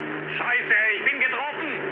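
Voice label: German aircraft damaged mood low, speech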